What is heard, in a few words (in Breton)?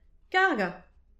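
"kargan", Breton; petit precis de prononciation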